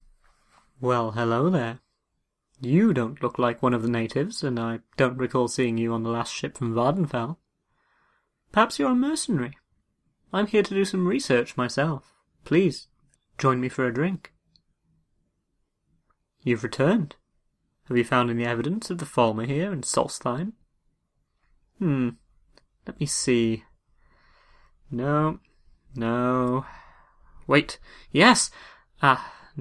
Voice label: high elf voice; athellor

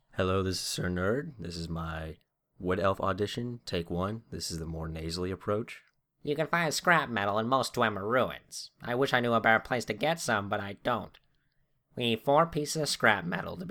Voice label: nasal wood elf

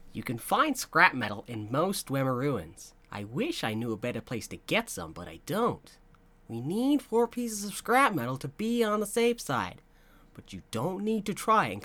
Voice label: voice, wood elf voice auditions

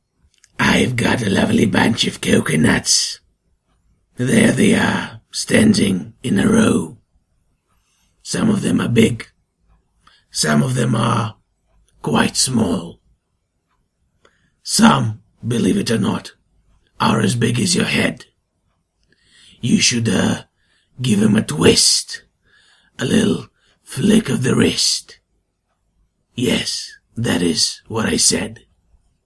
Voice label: dark elf, dark elf voice auditions

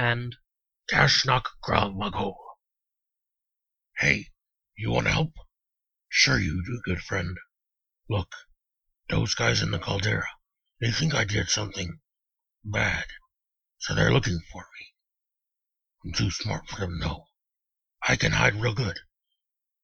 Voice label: male orc, voice auditions

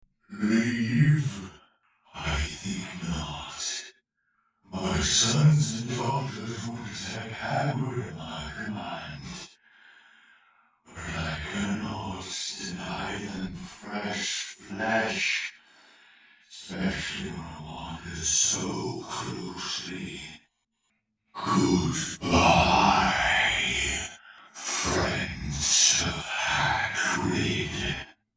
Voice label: scary voice test

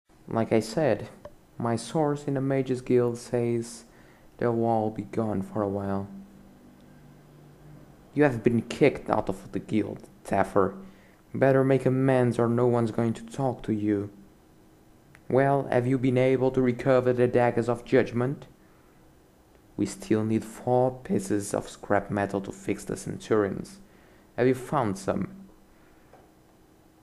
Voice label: jeweller, wood elf voice auditions

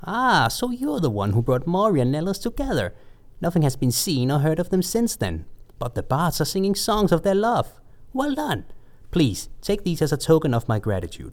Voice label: high pitch, breton voice auditions

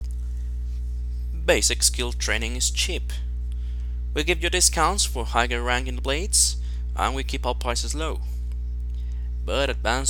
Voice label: high elf voice

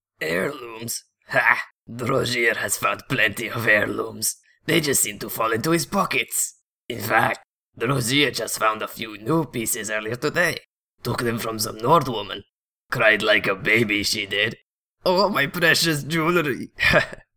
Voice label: khajiit audition

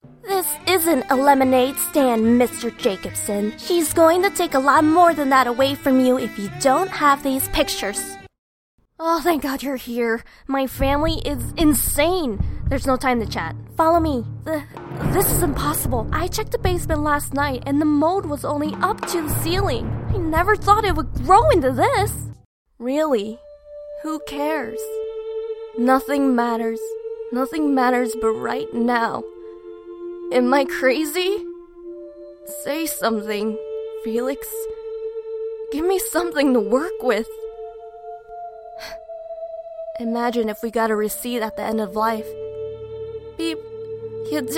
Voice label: character, wood elf voice auditions

